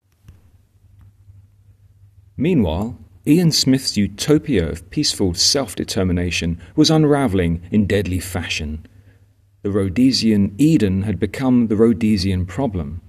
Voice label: voiceover